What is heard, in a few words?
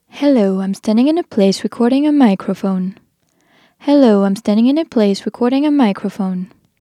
Various Room Mic Test female